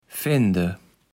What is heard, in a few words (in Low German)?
"fende", kaevels platt